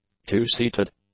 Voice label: "two-seated", English; adjectives